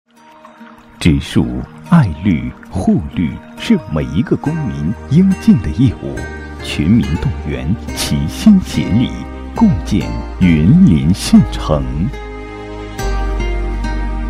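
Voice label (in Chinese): 【广告】男; 植树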